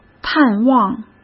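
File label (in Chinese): "探望", Mandarin Chinese; hsk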